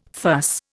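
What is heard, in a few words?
"fuss", Indian English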